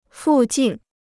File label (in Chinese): "附近", Chinese